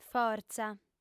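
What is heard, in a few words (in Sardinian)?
"fortza", female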